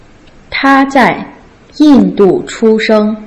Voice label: ta zai yin du chu sheng, Putonghua

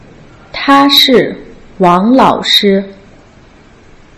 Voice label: ta shi wang lao shi, Putonghua

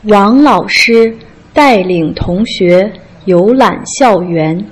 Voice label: wang lao shi dai ling tong xue you lan xiao yuan, Putonghua

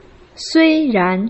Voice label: "sui ran", Putonghua

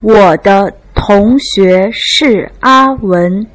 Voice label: wo de tong xue shi a wen, Putonghua

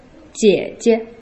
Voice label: "jie jie", Putonghua

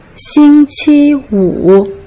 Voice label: "xing qi wu", Putonghua

